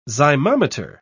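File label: "zymometer", British English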